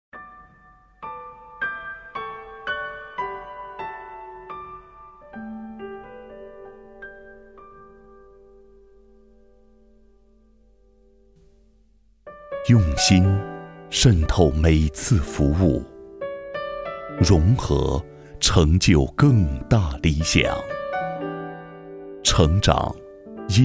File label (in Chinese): 广告 沉稳; 银行; 顺德农商行